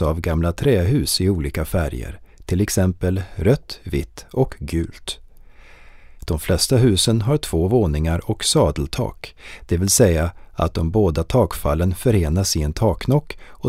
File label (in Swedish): Syntolkning hamnen; sandhamn